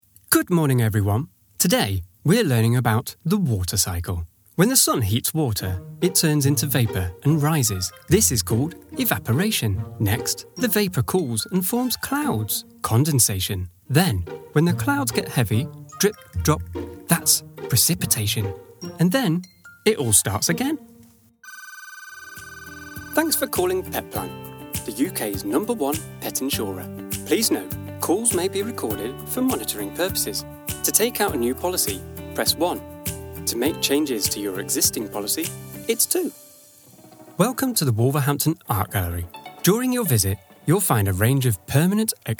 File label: Corporate